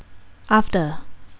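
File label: "after", Thai, female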